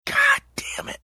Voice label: whispery goddamnit

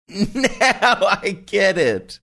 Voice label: laughing saying now i get it

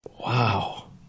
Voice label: quiet wow

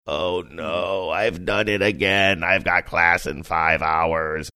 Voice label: saying oh no ive done it again funny drawn out

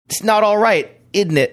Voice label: saying its not alright innit cockney

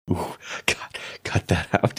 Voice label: quietly saying ooh god cut that out

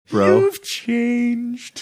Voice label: saying you've changed sing songy manner

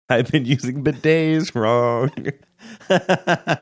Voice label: sing songy; saying ive been using bidets wrong

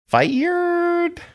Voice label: saying "fired" funny

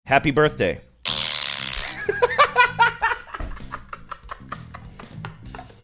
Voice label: saying happy birthday cracks up plays fart sound

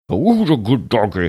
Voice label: muffled whos a good doggy

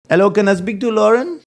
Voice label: hello can I speak to Lauren funny accent

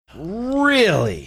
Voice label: drawn out really

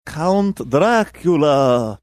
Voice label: Count Dracula like

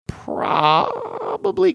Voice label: drawn out probably

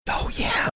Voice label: whispering oh yeah

